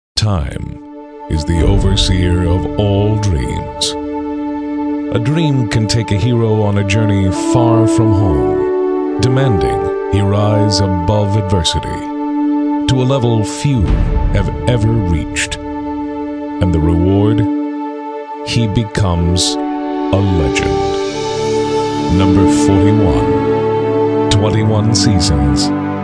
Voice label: calm and epic voice in american english